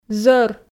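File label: "зӧр", Komi, female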